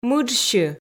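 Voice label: "мыджсьы", Komi, female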